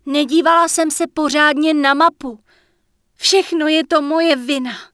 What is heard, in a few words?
Human Female err ability cooldown; wowpreklad; czwow; FemaleErrorMessages